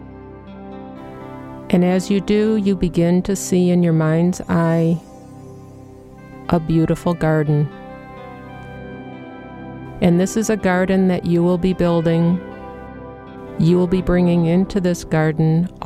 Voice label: meditation